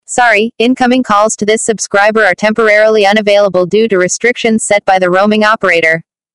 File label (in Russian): уведомление АНГ